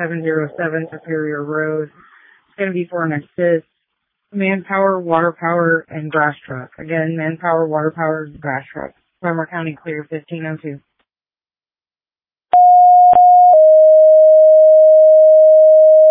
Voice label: Fire, dispatch